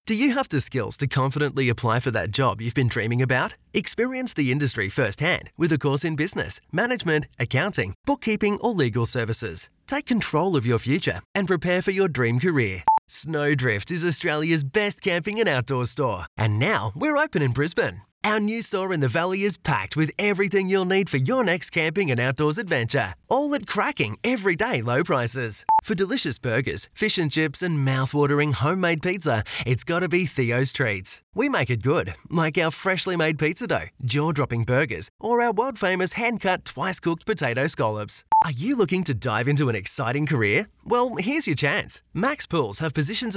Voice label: Hip Cool Young